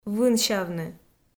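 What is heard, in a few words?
"вынсявны", Komi, female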